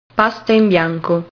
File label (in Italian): "pasta in bianco", italian